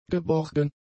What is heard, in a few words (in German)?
"geborgen", German; adjectives